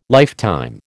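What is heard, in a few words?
"lifetime", American English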